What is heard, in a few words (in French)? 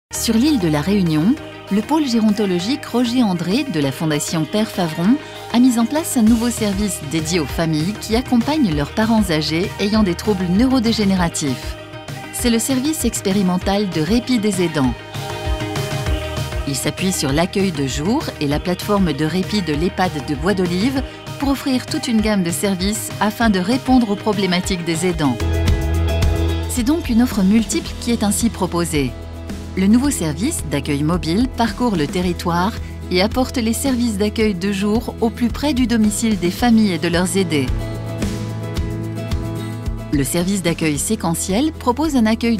film video institutionnel; fehap